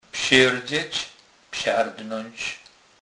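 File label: "pserdzec", Polish, Kurpie dialect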